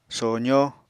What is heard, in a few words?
"sozó", Apatani